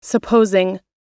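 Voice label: "supposing", US English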